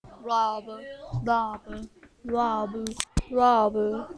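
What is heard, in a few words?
"rub", spoken word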